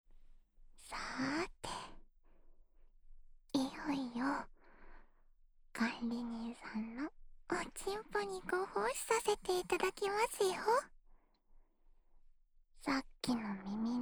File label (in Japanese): 耳元で囁きながら手コキ（右耳）